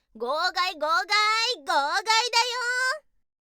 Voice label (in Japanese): イメージボイス メール着信